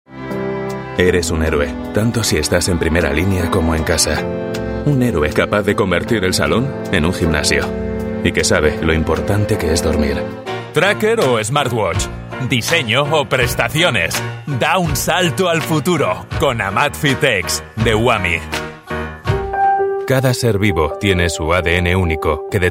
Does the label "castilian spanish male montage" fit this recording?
Yes